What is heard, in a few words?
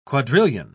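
"quadrillion", English, British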